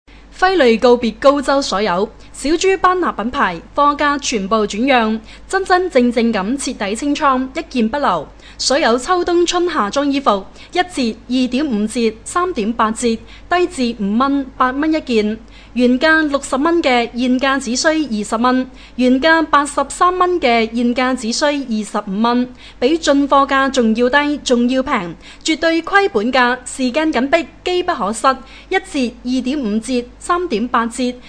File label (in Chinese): 粤语 女; 小猪班纳品牌